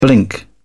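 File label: "blink", British English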